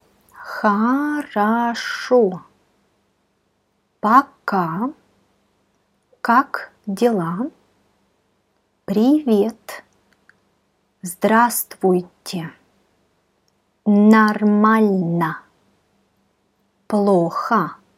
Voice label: dictation greetings